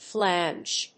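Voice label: "flange", English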